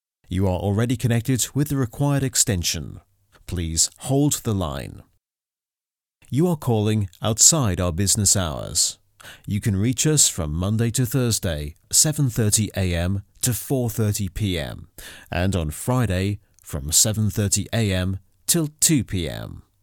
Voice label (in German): telefon